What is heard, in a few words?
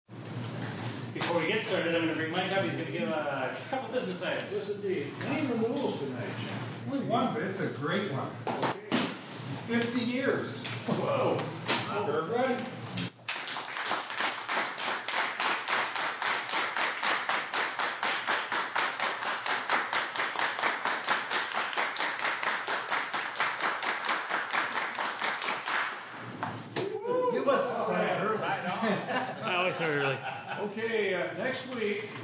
ANNOUNCEMENTS